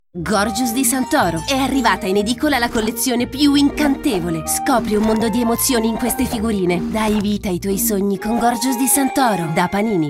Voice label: commercial, Italian, female

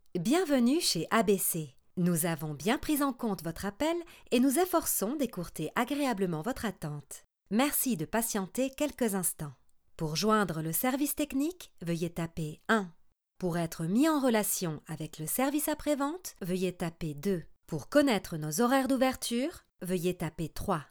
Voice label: COMPANY MESSAGE, FRENCH FEMALE

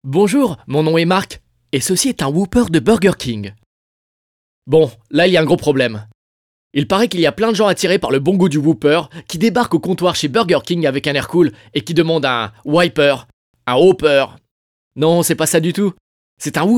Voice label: commercial, French, male

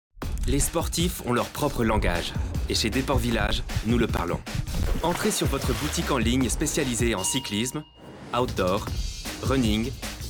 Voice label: commercial, french, male

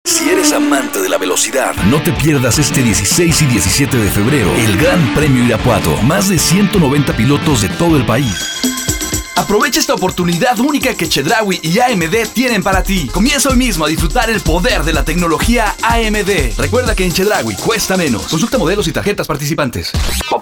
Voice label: advertising, ENGLISH UK MALE

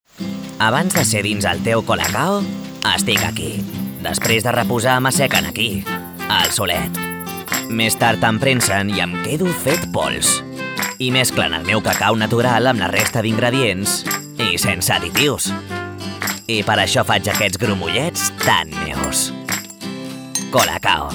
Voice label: CATALAN MALE COMMERCIAL

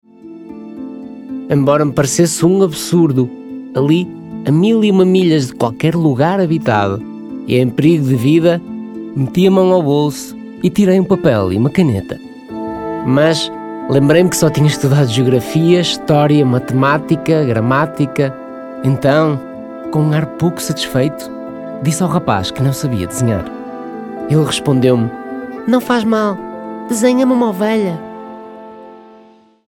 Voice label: audiobook, portuguese, male

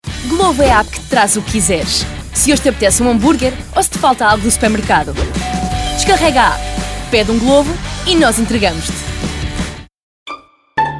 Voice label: commercial, portuguese, female

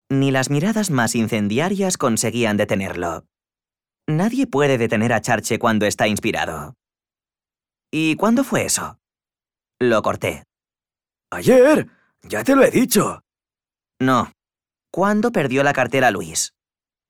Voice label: AUDIOBOOK, Spanish, male

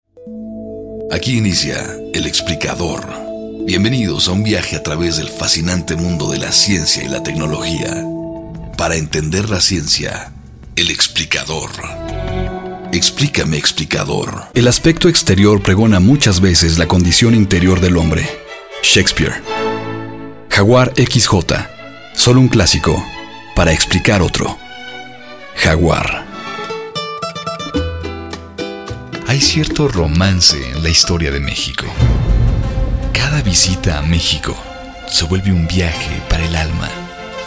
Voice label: character, English UK, male

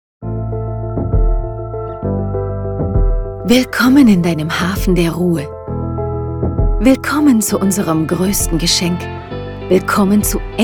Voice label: commercial, German, female

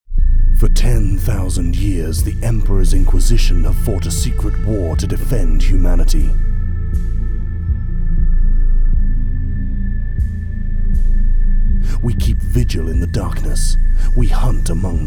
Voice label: trailer deep, English UK, male

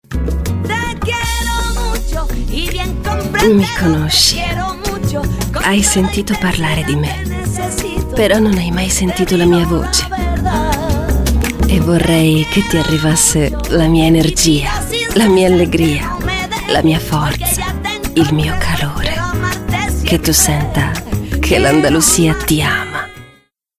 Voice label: commercial, Italian, female

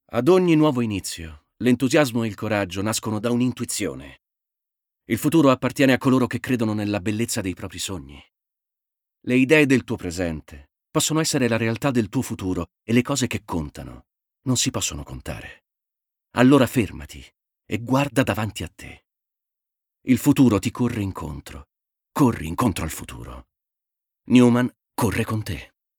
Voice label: Spot Italian, ITALIAN MALE; commercial

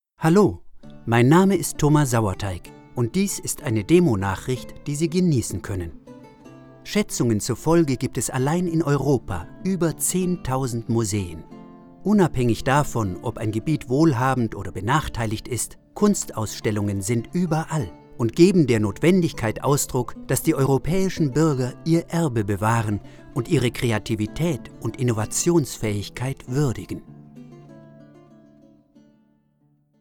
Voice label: audioguide, German, male

